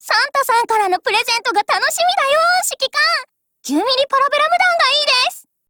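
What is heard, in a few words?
CHRISTMAS, Japanese; C96 Mod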